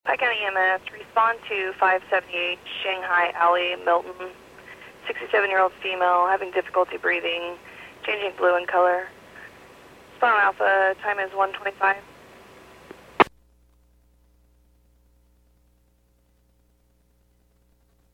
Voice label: PAGING